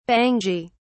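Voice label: "bangy", British English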